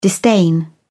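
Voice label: "disdain", British English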